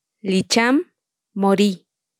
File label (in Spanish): Palabras en tsotsil, Licham - Morí